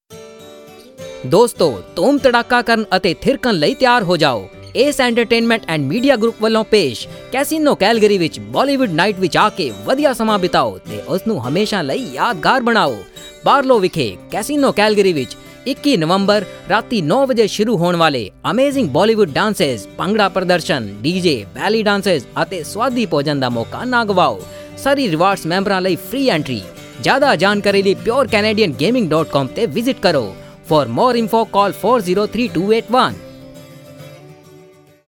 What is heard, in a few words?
Promo, Punjabi